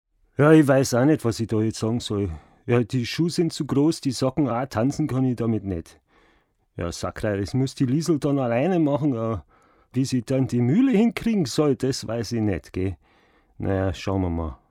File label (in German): Dialekt bayrisch